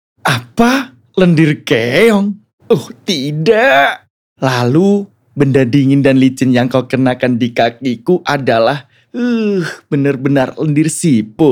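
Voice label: character INDO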